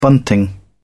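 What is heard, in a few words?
"bunting", British English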